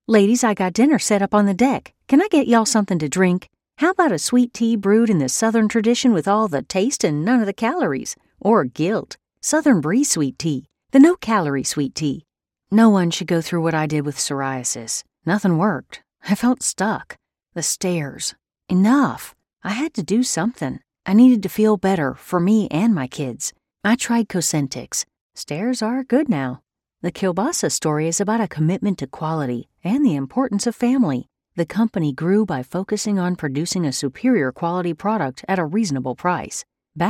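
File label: US English, Commercial; GoLocalise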